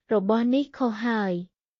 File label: Khmer, basic khmer cambodian everyday